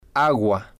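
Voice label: "agua", spanish, male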